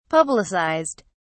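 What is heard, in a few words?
"publicized", British English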